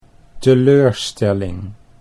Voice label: "teleurstelling", Dutch

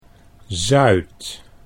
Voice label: "zuid", Dutch

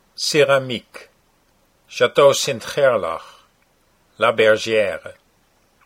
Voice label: Ceramique Chateau, Dutch

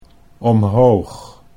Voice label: "omhoog", Dutch

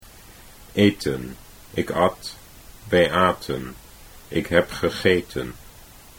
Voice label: eten ik at, Dutch

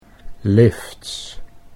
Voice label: "lifts", Dutch English

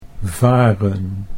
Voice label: "varen", Dutch

